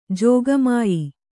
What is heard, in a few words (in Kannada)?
"ಜೋಗಮಾಯಿ", Kannada; jōgamāyi; noun